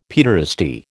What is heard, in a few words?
"paederasty", American